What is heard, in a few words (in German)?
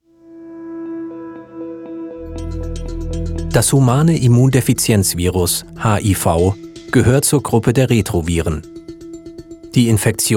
Sprachdemo Medizinischer Text; HIV